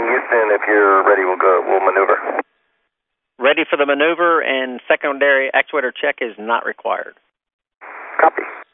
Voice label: NASA ready for the maneuver, NASA Space Shuttle Communications; VOCALS and SPOKEN WORD